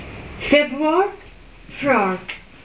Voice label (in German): februar, Maltesisch; monate